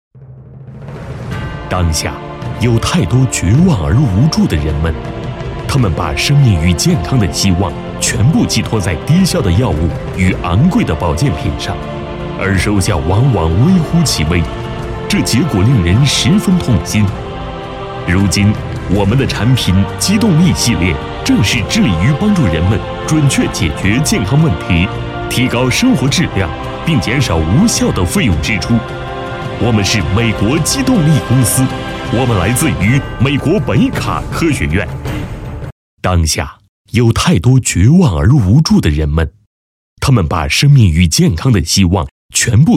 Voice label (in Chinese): 男, 品牌宣传; 美国基动力公司宣传配音